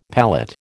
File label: "palette", American